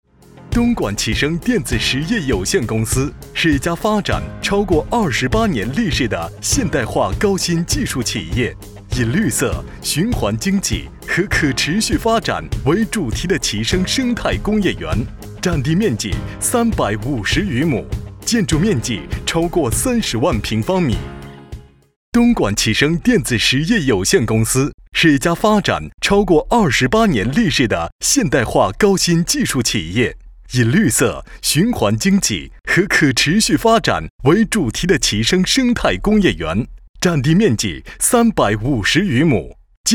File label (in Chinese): 企业宣传片轻松